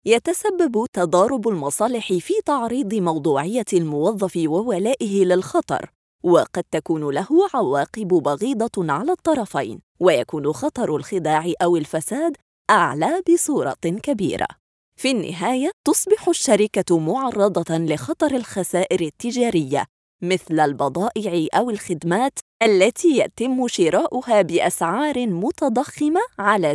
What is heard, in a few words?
eLearning, arabic voice